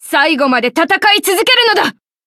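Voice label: hit, Japanese; cookie run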